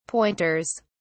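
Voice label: "pointers", British English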